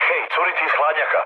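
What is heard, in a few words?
Serbian damaged water mood low, speech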